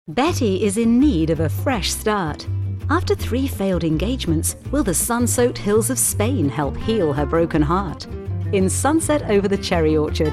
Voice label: UK ROMANCE NOVEL WARM FUN COMMERCIAL

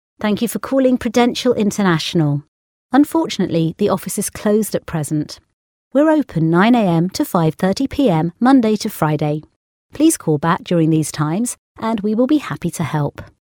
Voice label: ON HOLD IVR TELEPHONY STANDARD

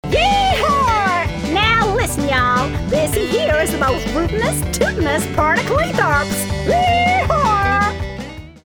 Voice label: COWGIRL

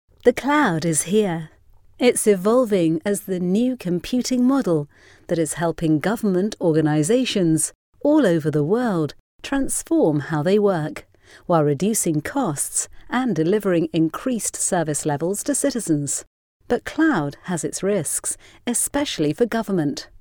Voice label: CORPORATE CALMING

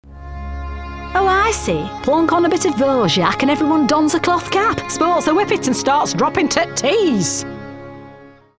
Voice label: YORKSHIRE WOMAN

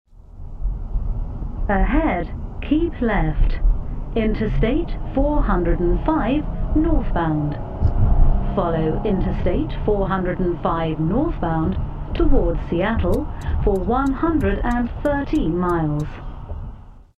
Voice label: CORPORATE SATNAV

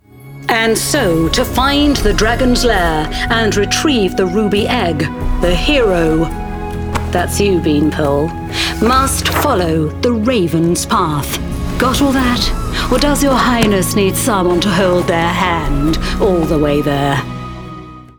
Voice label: VIDEOGAME SARCASTIC GUIDE

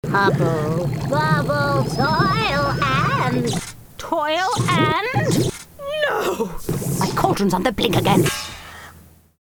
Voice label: WITCH

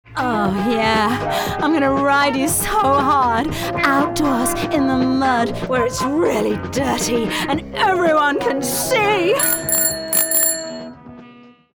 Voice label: RAUNCHY; BLUE MOVIE

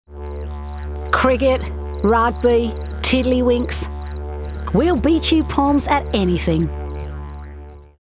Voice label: AUSTRALIAN

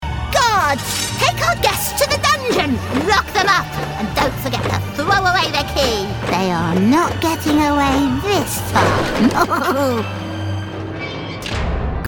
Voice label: ANIMATION BOSSY QUEEN

